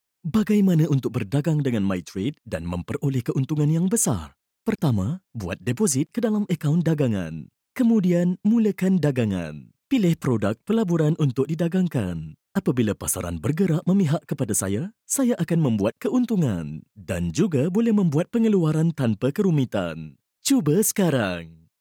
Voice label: MS, narrative; GoLocalise

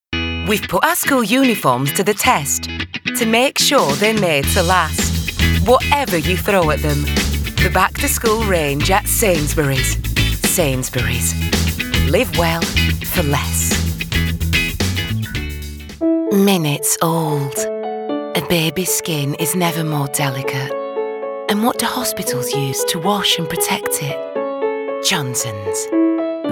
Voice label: UK English, Northern English

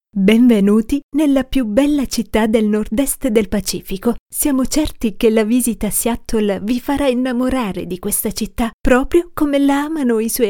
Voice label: IT, Audioguide

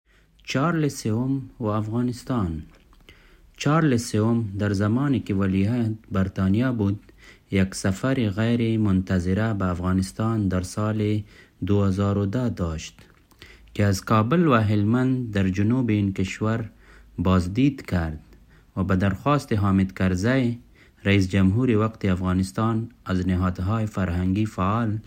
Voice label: Dari, general; GoLocalise

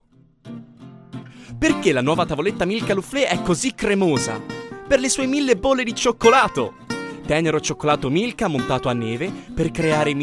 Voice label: IT, General

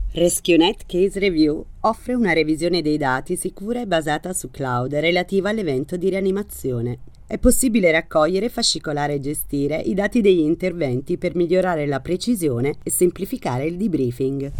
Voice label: IT, General

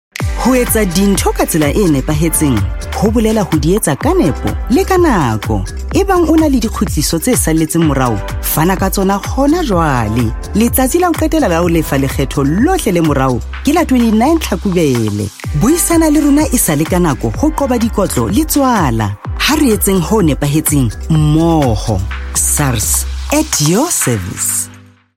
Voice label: Southern Sotho

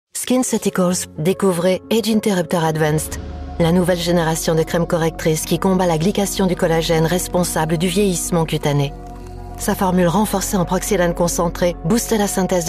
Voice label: FR, Commercial; GoLocalise

